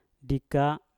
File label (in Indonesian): "dika" pegang, Mpur